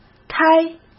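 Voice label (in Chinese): "开" Mandarin Chinese; chinese-flashcards; hsk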